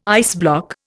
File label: "iceblock", Indian